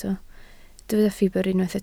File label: "ffibr", Welsh